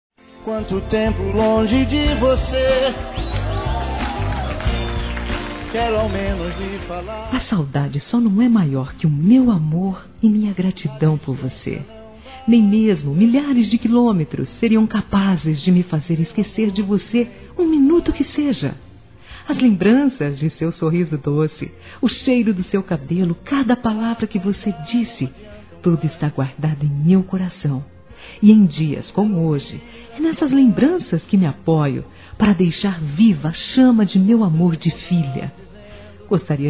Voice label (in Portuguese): SAUDADES MÃE F; Dia das Mães; Telemensagens 2017